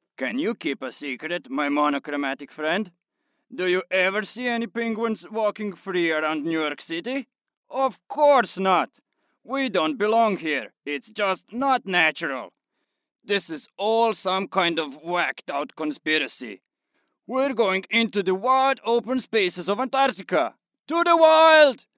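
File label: Croatian English cartoon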